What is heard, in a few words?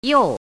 "yòu", hanzi